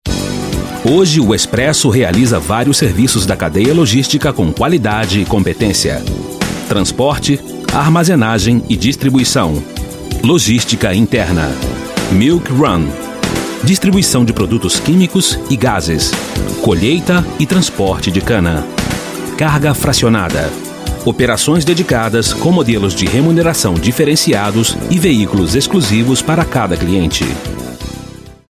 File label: Brazilian Portuguese, Male